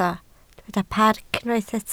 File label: "parc", Welsh